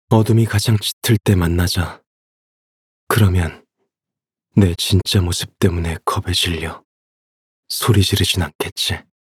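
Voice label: voice Korean; Phantasio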